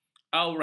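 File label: "aurat", Malay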